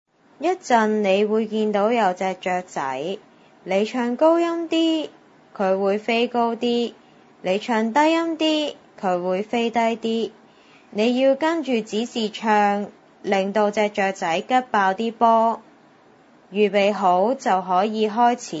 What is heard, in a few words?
instruction pitch singing; aphasia